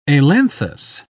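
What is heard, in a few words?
"ailanthus", British English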